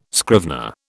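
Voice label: "scrivener", British English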